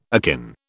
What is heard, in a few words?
"akin", British